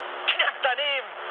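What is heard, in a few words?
German bomb failure mood high, speech; War Thunder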